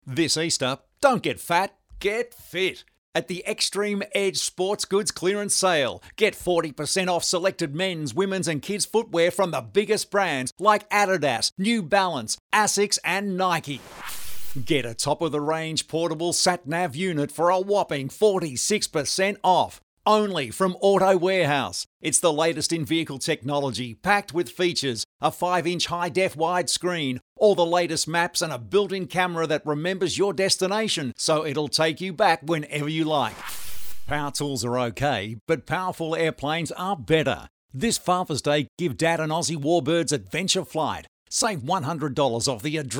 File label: Retail Happy